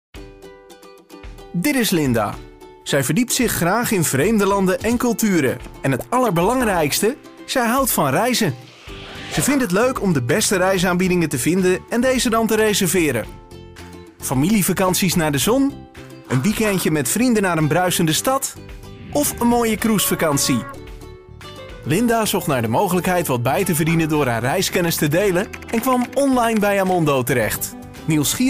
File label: dutch male upbeat explainer